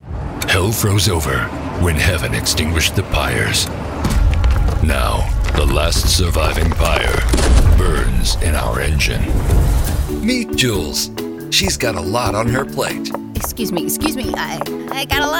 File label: Trailer